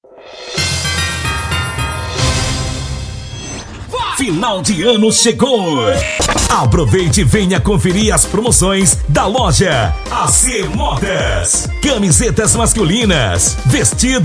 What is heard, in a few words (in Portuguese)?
comercial; ac moda